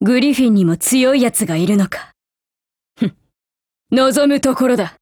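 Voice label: dialogue Japanese; Weaver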